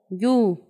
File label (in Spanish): "ojo", Paipai; Vocabulary; INALI